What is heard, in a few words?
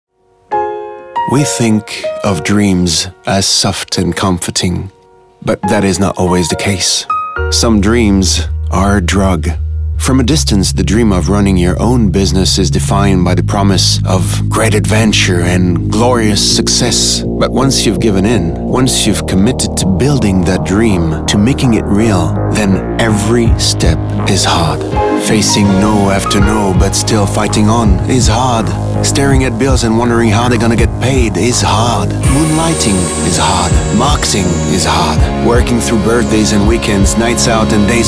Male 30 40 years Medium, english international